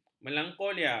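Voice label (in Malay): "melankolia", Malay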